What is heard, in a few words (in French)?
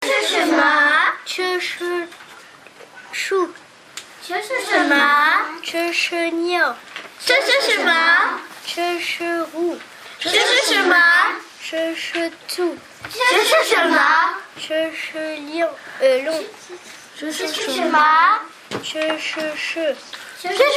chinois question eleves reponses